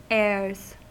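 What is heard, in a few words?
"heirs", US English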